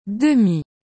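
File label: "demi", French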